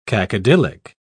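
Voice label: "cacodylic", British English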